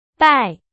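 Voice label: Chinese, vocabulary; hsk4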